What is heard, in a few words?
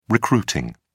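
"recruiting", British English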